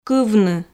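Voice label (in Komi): "кывны", Komi, female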